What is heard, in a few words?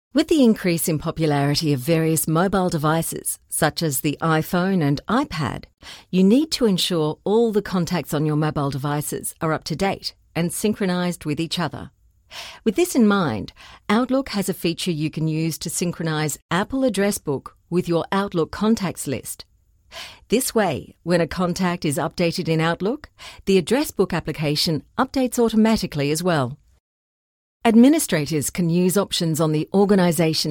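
Australian English, female, narration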